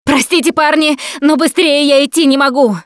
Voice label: going to die, russian; Producer

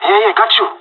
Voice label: covering friend, pirate; Counter-Strike Global Offensive

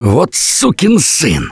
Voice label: reaction negative, russian; Gambler

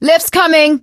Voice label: bridge raise hit it, survivor voice; Left 4 Dead 2

